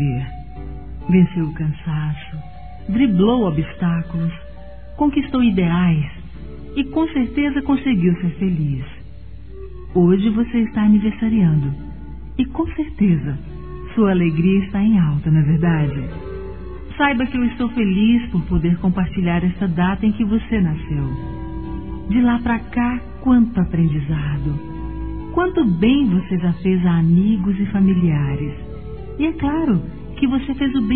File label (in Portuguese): Aniversário Compadre Feminino; Aniversários; Aniv Familiar